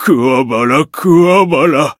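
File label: Voice win barely, Japanese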